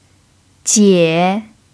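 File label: "jiě", Mandarin Chinese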